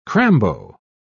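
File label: "crambo", British English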